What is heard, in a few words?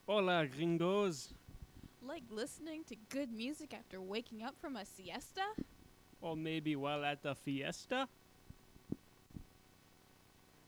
voice track; radiostation